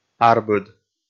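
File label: "арбыд", Komi, male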